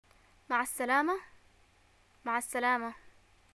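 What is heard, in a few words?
"greeting farewell", Yemeni Arabic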